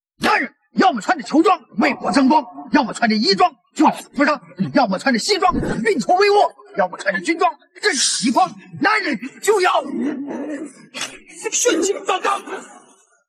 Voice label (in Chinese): 干声; 男人 血气方刚！